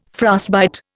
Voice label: "frostbite", Indian

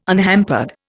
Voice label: "unhampered", Indian

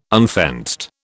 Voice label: "unfenced", British

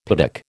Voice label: "piddock", American

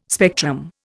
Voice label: "spectrum", Indian

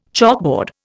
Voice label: "chalkboard", Indian

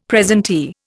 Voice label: "presentee", Indian; Dictionary

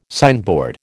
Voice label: "signboard", American

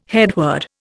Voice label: "headword", Indian

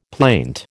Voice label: "plaint", American

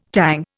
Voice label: "Tang", Indian

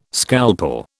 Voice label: "scalpel", British

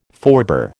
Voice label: "forebear", American

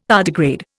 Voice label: "tardigrade", Indian

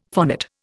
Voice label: "phonate", Indian; Dictionary

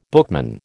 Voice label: "bookman", American

